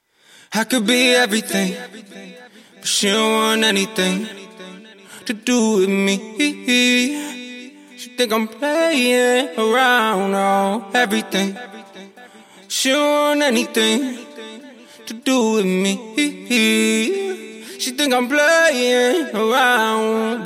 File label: Acapellas, 98BPM Key Em